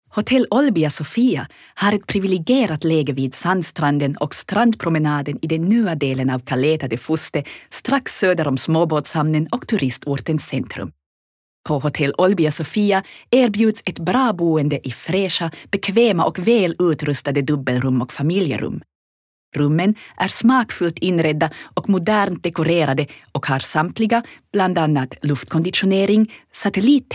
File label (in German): Freundlich